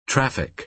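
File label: "traffic", American English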